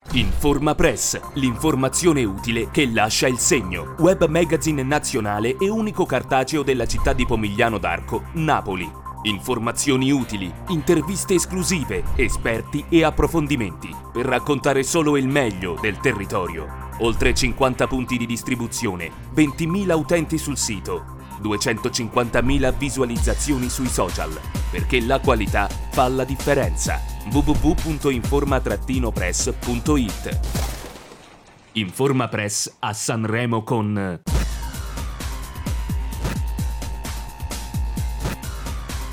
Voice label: italian movie trailers